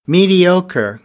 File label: "mediocre", American; English; ɚ - əɹ